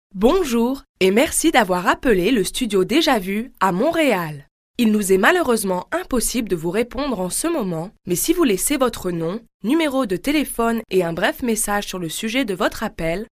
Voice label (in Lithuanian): (MOT) Prancuzu balsas